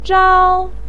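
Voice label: "zhao1", Mandarin Chinese pinyin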